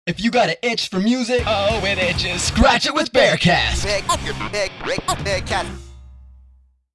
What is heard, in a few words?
radio imaging piece; Bearcast